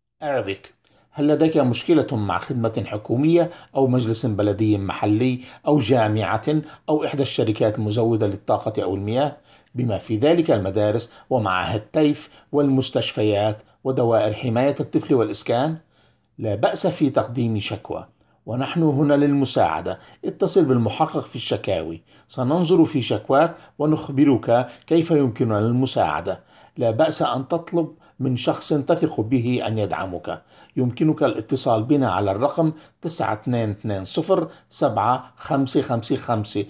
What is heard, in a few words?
Arabic